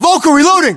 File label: US inform reloading, battlechatter, english